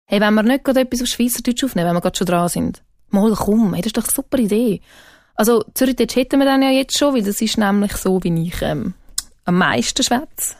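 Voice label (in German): Swiss, Schauspiel Neutral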